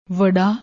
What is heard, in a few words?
"warra", Punjabi; ਵੜਾ; وڑا; noun, masculine